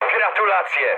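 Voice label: player killed enemy mood med, speech Polish; War Thunder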